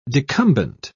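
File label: "decumbent", British English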